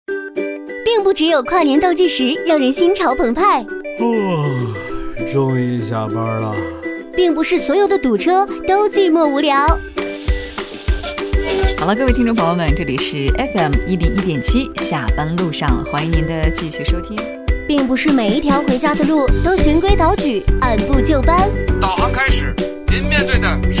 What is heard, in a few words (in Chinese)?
女 电台 下班路上